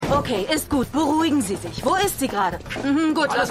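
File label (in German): Sanitäterin, Stimmproben; Shameless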